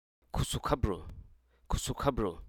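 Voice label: Hruso Aka; cactus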